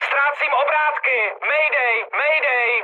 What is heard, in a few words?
damaged engine, speech cze, mood high